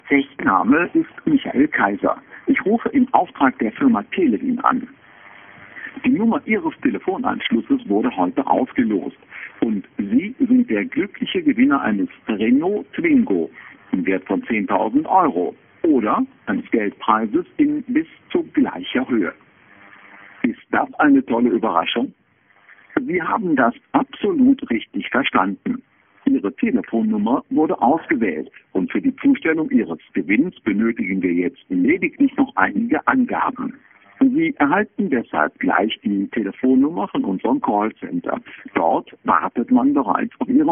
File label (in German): werbeanruf